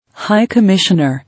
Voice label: "High commissioner", English

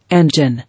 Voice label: "Engine", English

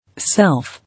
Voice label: "Self", English

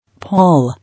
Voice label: "Pall", English

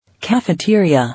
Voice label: "Cafeteria", English

